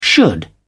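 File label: "should", British English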